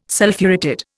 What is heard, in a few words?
"Sulphuretted", Indian